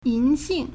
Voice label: "yin2 xing4", Chinese